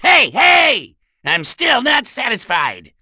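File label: Vox Victory, voices heroes English; kingsraid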